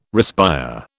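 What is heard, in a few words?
"respire", British English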